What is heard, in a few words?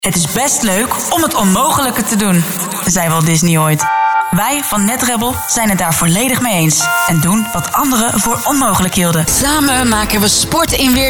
radiospot; vrc demo